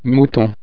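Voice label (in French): "mouton", French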